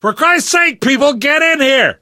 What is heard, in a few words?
stay together inside, left4dead survivor voice namvet